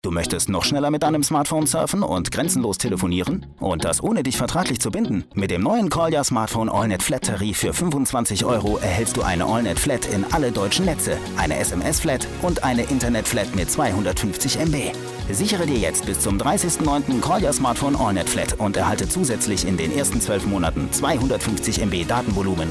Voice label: TV Spot; Vodafone